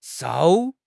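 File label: "shou4", Mandarin Chinese; HSK3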